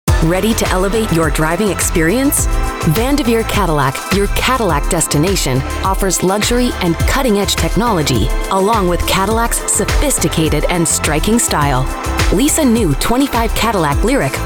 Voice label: english canadian automotive, voice actors; Uma voz autêntica, coloquial e tranquila, sem ser a de um locutor.